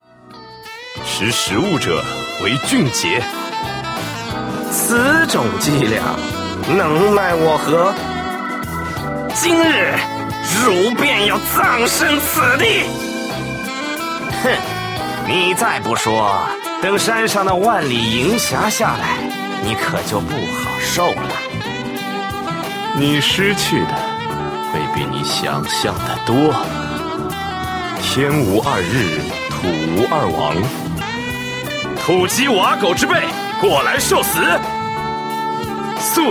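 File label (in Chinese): male, qingnian (young man)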